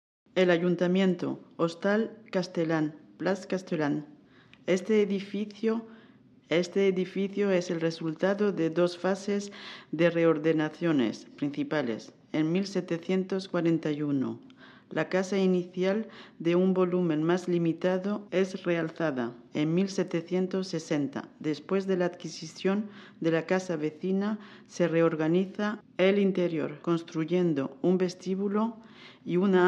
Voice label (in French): ESPAGNOL; Hotel Castellane